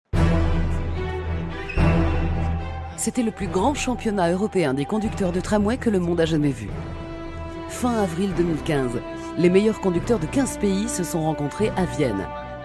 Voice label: Voice Over